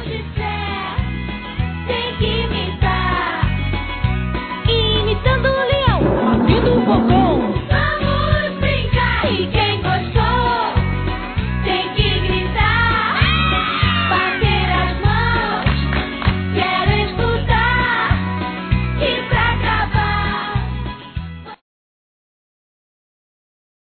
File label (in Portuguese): Aniv. Professora Feminino, Telemensagens; Aniversário Infantil